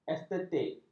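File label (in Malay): "estetik", Malay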